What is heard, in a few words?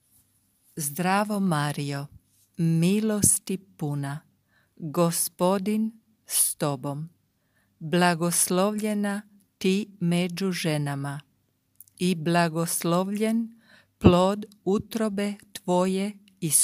Croata Ave Maria very Slow